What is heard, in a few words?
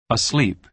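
"asleep", American English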